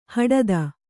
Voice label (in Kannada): "ಹಟಾತ್ತು", Kannada